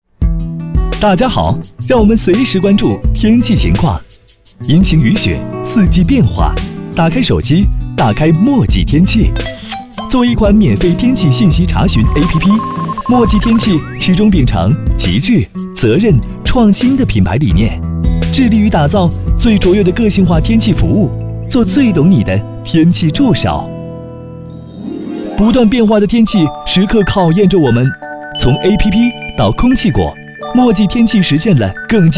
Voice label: 男国 宣传片 病毒; 飞碟说APP墨迹天气

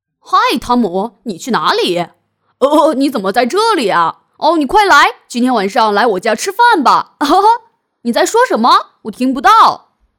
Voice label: 动画 童声 男童

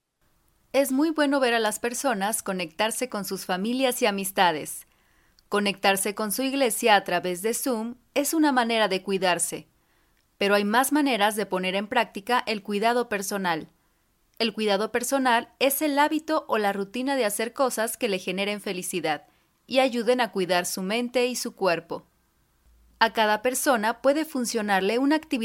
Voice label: 西班牙语女 外语 小语种

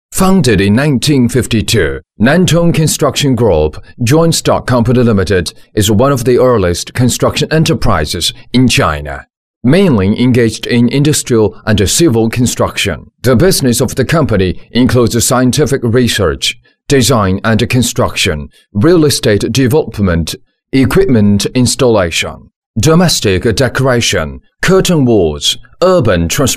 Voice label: 男英 外语 国内英语 宣传片 大气; 宣传片南通建设

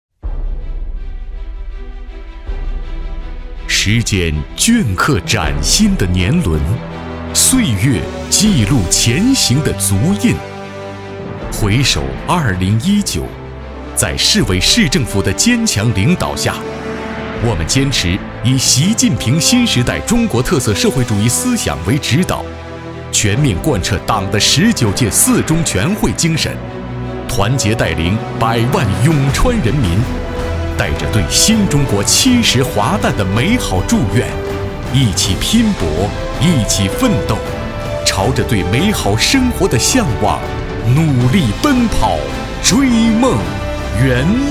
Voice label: 宣传片 城市 宣传片 激情, 男; 重庆永川区

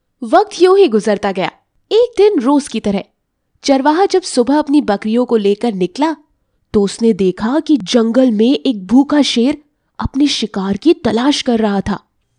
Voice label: 印度语女 外语 小语种

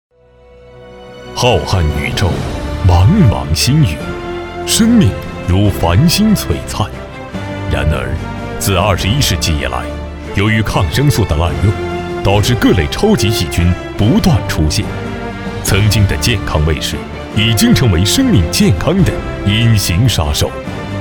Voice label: 男国 宣传片 企业 大气; 公司形象片

